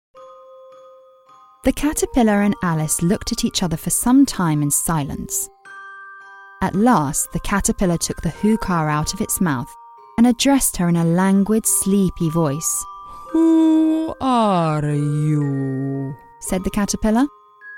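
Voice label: British English, Narrative; GoLocalise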